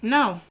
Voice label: "no" female stern; Voice; expletives

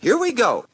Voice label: here we go announcer

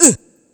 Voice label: "uh", male

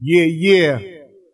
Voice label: "yeah yeah", voice

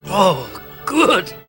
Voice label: oh good, cartoon; Voice

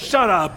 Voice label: shut up male; expletives

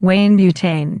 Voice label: female, voice drops

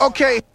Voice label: "okay", expletives

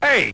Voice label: "hey", male

